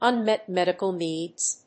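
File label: "Unmet Medical Needs", English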